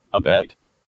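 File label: "abet" US English; pronunciation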